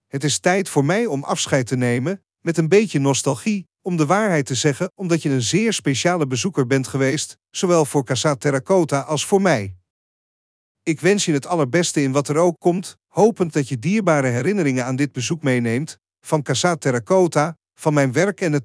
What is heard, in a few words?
Dutch